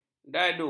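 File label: "dadu", Malay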